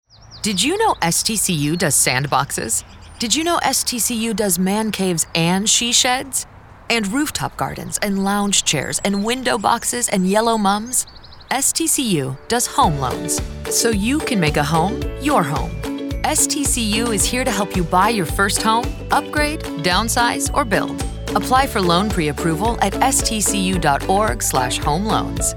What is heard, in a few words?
Real Estate RADIO SPOT; STCU